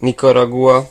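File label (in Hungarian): "nicaragua", Hungarian; gcompris; geography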